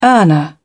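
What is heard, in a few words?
"earner", British English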